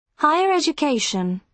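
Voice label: "higher education", British English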